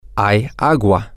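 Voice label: there is water, Spanish, male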